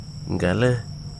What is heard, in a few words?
"burn", Wahgi; lae unitech